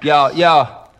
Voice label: "yo yo", Voice; ooh ahh